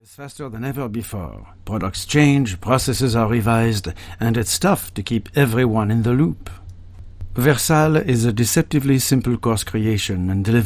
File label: English, Accented English, Male